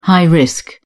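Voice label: "high-risk", English, British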